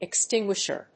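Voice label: "extinguisher", English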